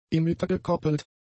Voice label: "emittergekoppelt", german; adjectives

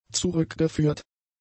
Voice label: "zurückgeführt", German; adjectives

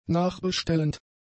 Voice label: "nachbestellend", German; adjectives

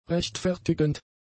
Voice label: "rechtfertigend", German; adjectives

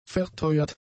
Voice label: "verteuert", German; adjectives

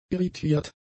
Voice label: "irritiert", german; adjectives

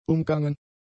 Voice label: "umgangen", German; adjectives

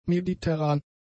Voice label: "mediterran", German; adjectives

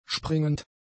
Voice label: "springend", German; adjectives

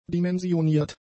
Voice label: "dimensioniert", german; adjectives

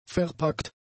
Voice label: "verpackt", german; adjectives

